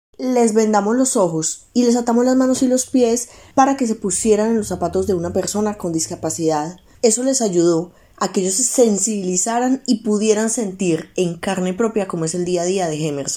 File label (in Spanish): Mujer Adulta; casting trineo tv